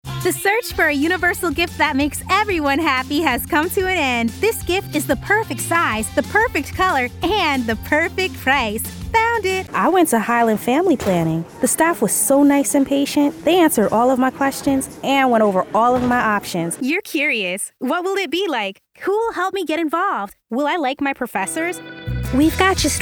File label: North American Female VO